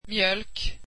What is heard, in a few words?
swedish milk